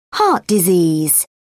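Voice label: "heart disease", British English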